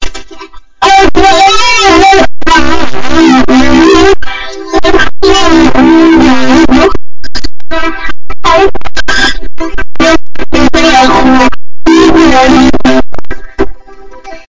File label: vocode